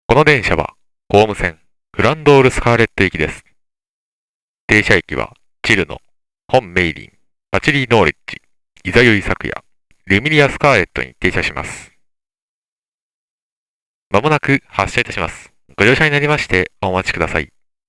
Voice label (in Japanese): アナウンス; 紅霧線; サンクリ42